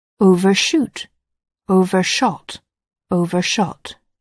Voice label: "overshoot", English